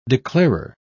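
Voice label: "declarer", British English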